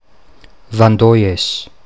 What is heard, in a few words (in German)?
"Vandoies" Mundart